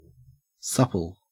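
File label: "supple", Australian English; pronunciations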